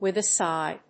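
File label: "With a sigh", English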